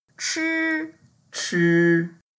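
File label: "chi1", Mandarin Chinese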